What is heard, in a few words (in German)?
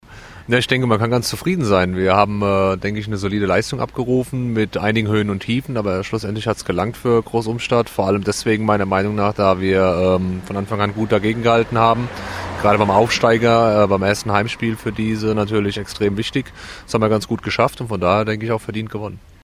Rueckblick, Interview